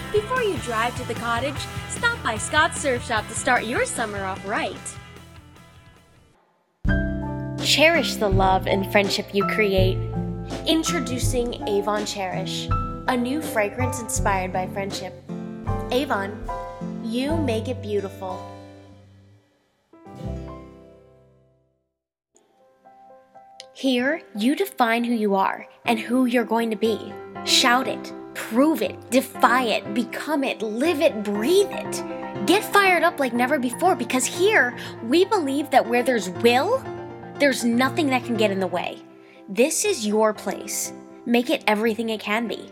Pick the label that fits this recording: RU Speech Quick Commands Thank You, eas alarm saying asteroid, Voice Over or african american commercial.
Voice Over